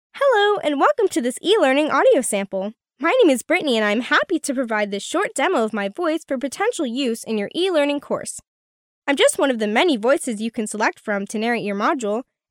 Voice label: Casual, Youth, e-learn

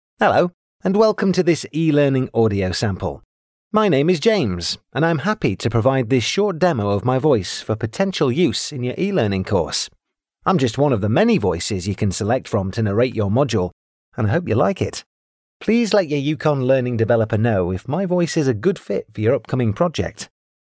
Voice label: Casual, British accent, e-learn

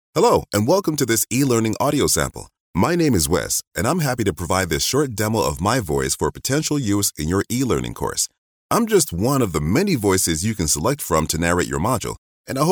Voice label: Casual, voice talent

